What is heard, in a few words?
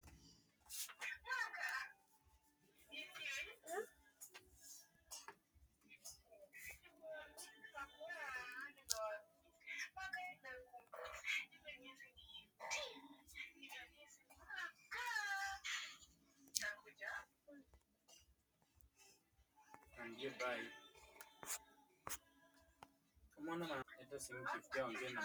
voice message